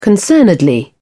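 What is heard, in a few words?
"concernedly", British English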